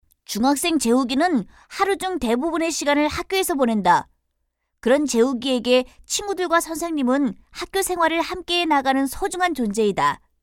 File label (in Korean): 내레이션; 도덕; 이웃에 대한 배려와 상호 협동